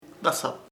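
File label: cousin primo, Ishir Chamacoco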